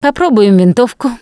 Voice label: take assault rifle, russian, Survivor Voice Producer